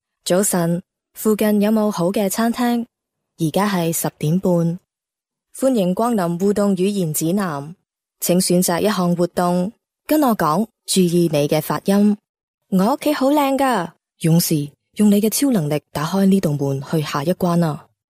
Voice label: female cantonese voice over character